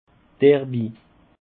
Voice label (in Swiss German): "derby", Alsace